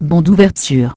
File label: band open from, fr_FR; SvxLink; PropagationMonitor